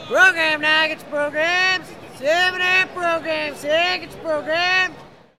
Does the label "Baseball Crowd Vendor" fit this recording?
Yes